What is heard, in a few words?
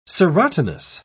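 "serotinous", British English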